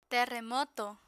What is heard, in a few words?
"terremoto", Spanish